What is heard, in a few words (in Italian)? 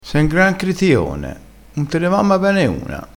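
"critione", vocabolario dialettale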